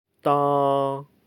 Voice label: "daa", Cantonese

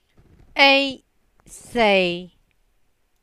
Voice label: ei sei3, cantonese

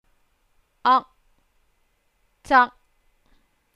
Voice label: ak zak1, cantonese

